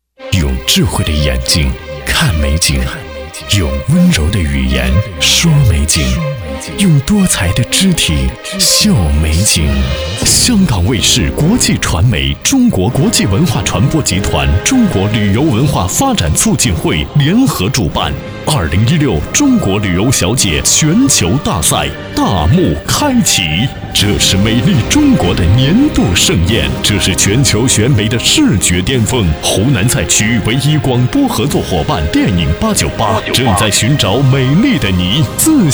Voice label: 宣传片; 2016中国旅游小姐

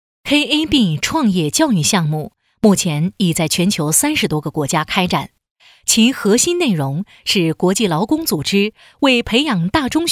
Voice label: 课件 课程教育

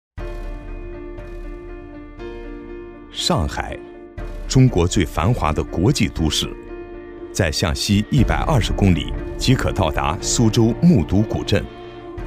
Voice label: 男 企业宣传; 华纳

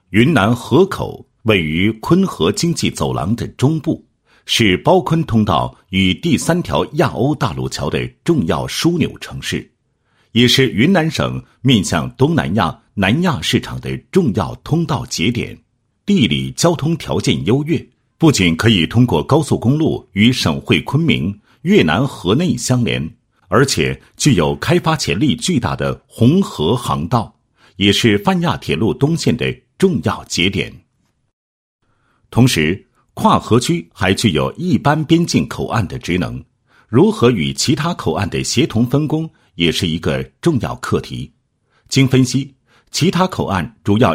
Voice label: 男, 项目解说; 项目规划; 中越河口跨合区评优项目

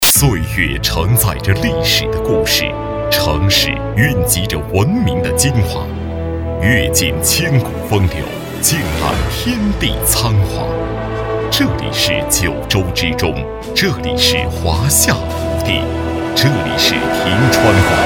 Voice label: 男, 城市宣传; 洲际

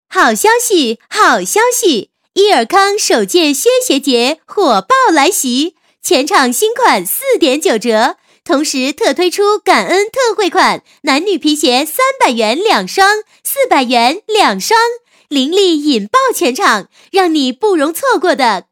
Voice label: 女 促销, 国语女声配音; 彩铃促销; 意尔康

